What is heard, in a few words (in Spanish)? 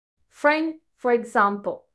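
Voice Acting es F, Spanish, female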